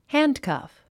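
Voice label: "handcuff", English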